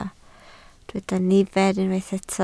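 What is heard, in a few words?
"nifer" Welsh, spoken word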